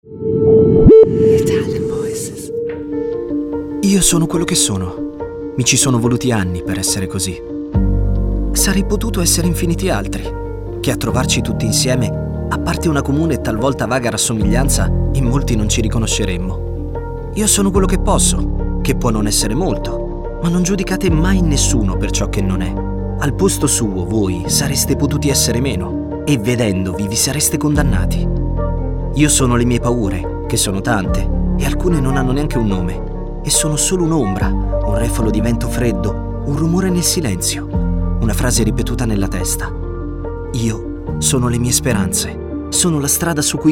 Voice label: ITALIAN VOICES, male voices; Naked Reel